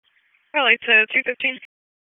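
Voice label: Police Dispatch; wake; p25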